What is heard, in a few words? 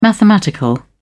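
"mathematical", British English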